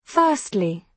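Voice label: "firstly", British English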